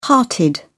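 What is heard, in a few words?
"hearted", British English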